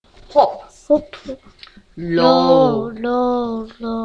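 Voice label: "low", spoken word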